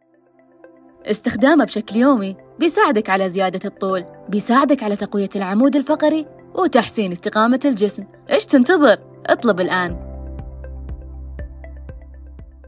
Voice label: voiceover